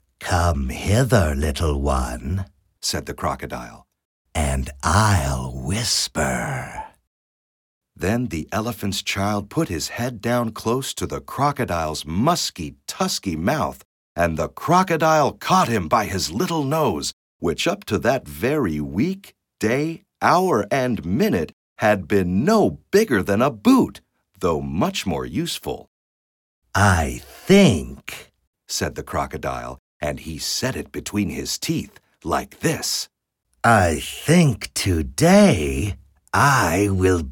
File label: US, character